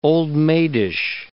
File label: "old-maidish", English